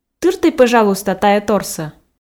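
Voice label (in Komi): тыртӧй пӧжалуйста тайӧторсӧ, Komi, female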